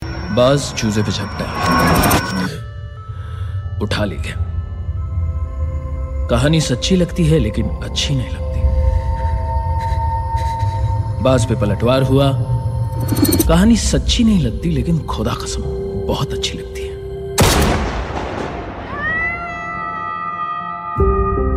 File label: movie dialogue ringtone; madaari movie